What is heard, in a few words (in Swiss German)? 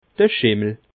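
"schimmel", Bas Rhin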